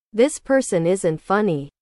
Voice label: english